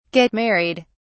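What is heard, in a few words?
"get married", British English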